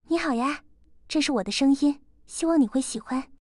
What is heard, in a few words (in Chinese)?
甜美女声